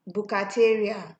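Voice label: "bukateria", Nigerian Pidgin, female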